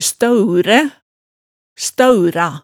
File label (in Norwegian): "staauræ", hemsedalsmaalet, tale